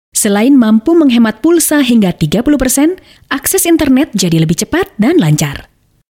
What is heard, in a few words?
Bahasa Indonesia Female